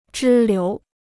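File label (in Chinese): "支流" Chinese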